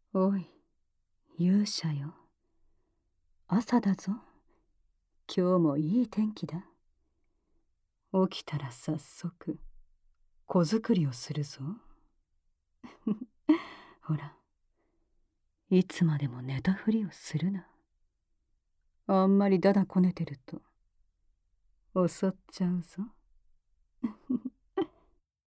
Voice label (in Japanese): ラブラブ目覚まし音声; アルドラのラブラブ目覚まし音声♪